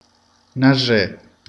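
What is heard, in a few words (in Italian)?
"dietro", Italian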